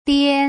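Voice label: "dian", Putonghua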